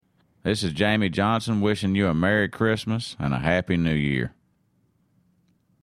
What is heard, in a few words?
liner merry christmas happy new year; jamey johnson